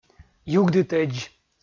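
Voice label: "югдытӧдз", Komi, male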